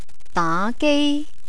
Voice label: "daagei", Cantonese; entertainment